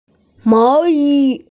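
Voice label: "sweater", Mandarin, hearing-impaired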